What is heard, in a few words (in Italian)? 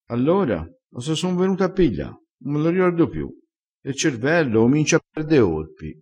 "perde orpi", crespinese